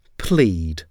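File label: "plead", English; to plead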